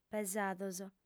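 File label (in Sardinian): "pesados", female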